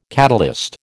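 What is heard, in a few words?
"catalyst", American English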